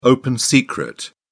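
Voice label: "open secret", British English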